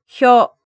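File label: "hyo", Japanese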